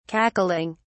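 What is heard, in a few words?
"cackling", British English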